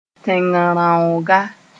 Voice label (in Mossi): "tẽng-n-rõaaga", Moore; plant sp